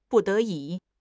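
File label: "不得已", Chinese; HSK6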